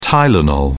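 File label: "tylenol", English, British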